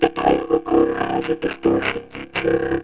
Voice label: distort, vocoder; PAiA vocoder